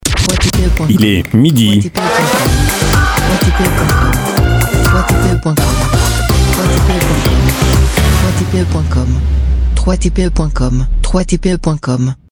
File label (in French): il es midi heure top dance, voix homme; top horaire généraliste; voix masculin